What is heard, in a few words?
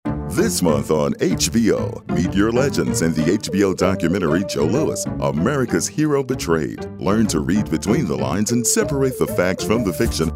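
friendly genuine, voice-over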